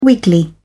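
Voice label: "wiggly", British English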